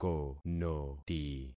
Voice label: "konoti", concat syllables; Affixing experiment